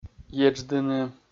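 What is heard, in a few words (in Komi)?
"едждыны", Komi, male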